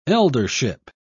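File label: "eldership", British English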